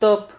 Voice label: "top", Silabas

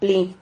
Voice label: "pli", Silabas

